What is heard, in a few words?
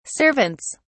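"servants", British English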